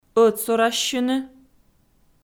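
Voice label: "ӧтсорассьыны", Komi, female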